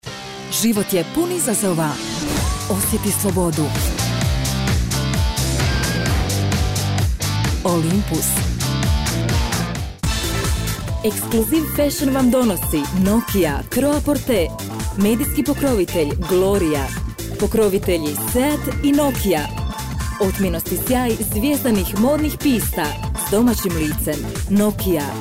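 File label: bosnian female voice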